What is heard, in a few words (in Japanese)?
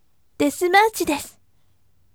voice death yasashime